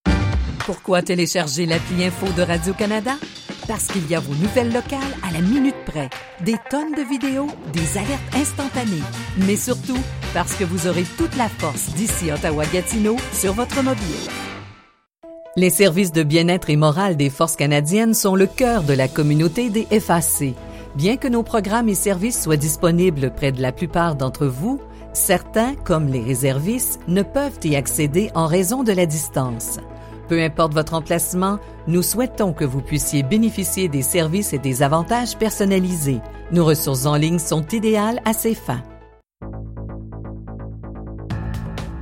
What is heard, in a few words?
french canadian commercial